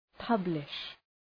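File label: "publish", English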